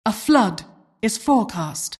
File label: announcer custom weather alert